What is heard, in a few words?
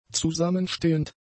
"zusammenstehend", German; adjectives